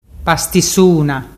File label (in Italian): pastisuna, dialettu